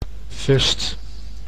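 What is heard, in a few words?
"fust", Dutch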